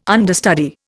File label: "understudy", Indian